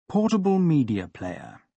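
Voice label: "portable media player", British English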